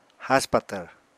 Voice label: "haspatvr", Apatani

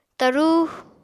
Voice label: "tarú", apatani

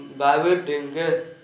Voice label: old be, Gta, Dialect: Hill; bawir=ɖiŋ=gǝ

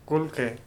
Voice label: "send", Korku

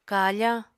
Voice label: "kafañ", Apatani

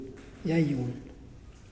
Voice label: "Tail", Muyuw; Lae Unitech

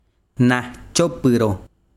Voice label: besiro pasto, chiquitano

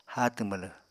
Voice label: "háatw mwlw", Apatani

